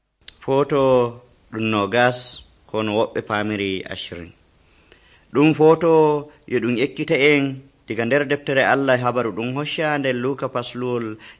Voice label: fulfulde, drama; fulfulde adamawa abc nt drama